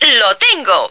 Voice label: "got im", female

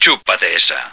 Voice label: eat that, male

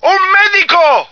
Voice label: Medic, male; Marisa the Magician

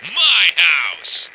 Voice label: my house, male

